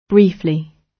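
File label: "briefly", British English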